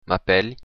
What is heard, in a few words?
my name is, occitan; M'apèli